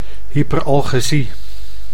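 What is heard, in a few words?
"hyperalgesie", Dutch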